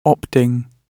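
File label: "opting", British English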